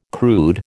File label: "crude", American